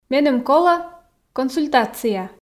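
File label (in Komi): меным колӧ консультация, Komi, female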